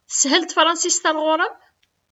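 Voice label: Kabyle; Teshel tefransist ar ɣur-m?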